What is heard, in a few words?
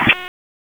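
Police Southwest District Tac, radio; Raleigh; Tac 1; wake; p25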